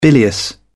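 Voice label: "bilious", English, uk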